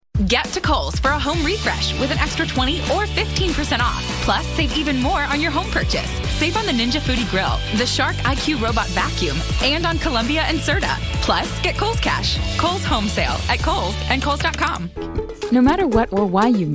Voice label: Commercial Demo